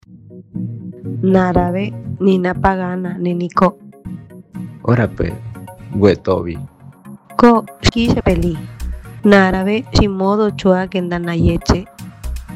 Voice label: Zapoteco Juchitan; ¡Yo Decido

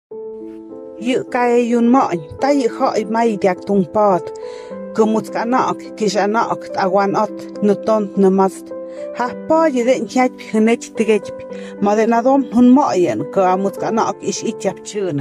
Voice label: MIXE ISTMO

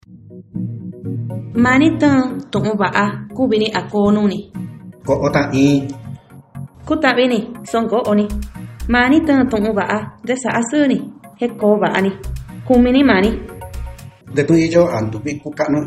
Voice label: Mixteco Yuchuiti; Yo Decido

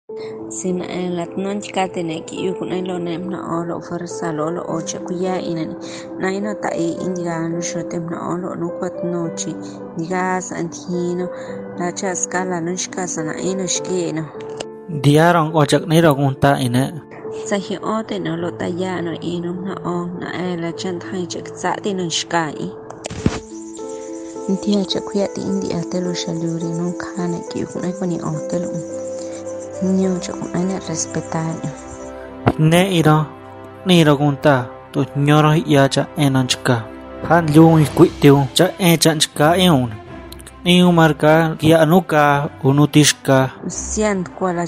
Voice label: SPOT CHATINO

